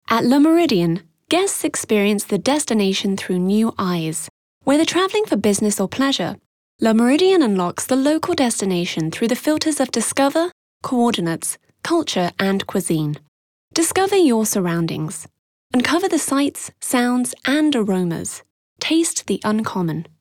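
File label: British, IVR; Le Meridien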